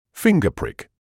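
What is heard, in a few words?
"finger prick", British English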